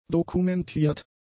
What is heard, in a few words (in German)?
"dokumentiert", German; adjectives